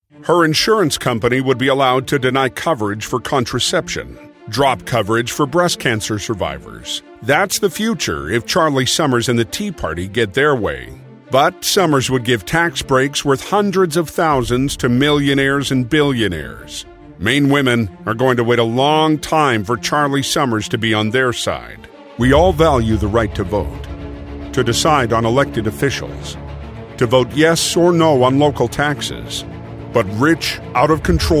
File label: Political Voiceover